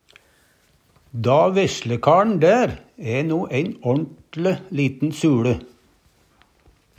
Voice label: suLe, Numedalsmål; Substantiv hankjønn

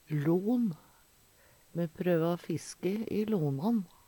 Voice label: lon, Numedalsmål; stille vatn

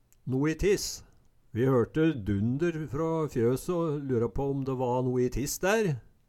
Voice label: no i tiss, Numedalsmål; Vi hørte dunder frå fjøse å lura på om de va no i tiss dær

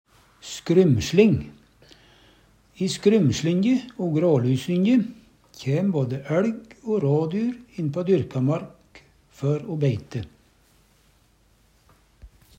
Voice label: "skrymsling", Numedalsmål

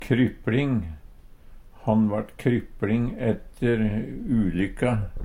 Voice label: krypling, Numedalsmål; Adjektiv

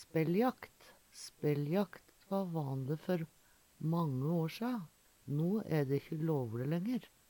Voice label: spelljakt, Numedalsmål

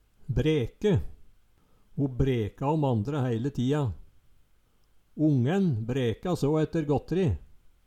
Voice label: "breke", Numedalsmål

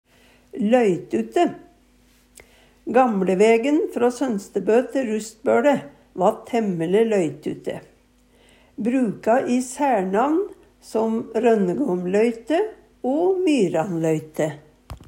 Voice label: loytute, Numedalsmål; løytute

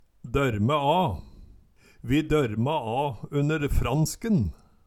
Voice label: "dorme a", Numedalsmål

